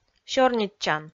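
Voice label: "сёрнитчан", Komi, female; kpv audio dict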